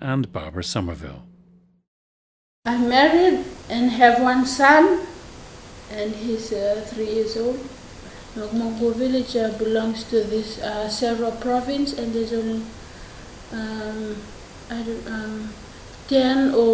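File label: fiji, International Dialects of English Archive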